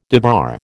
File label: "debar", American